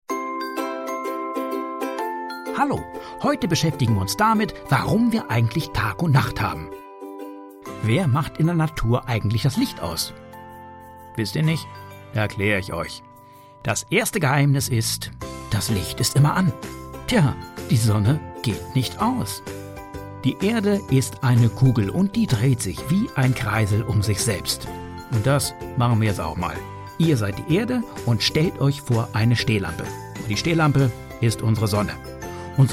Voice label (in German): erklaerfilm kinder musik; LOFT VOICES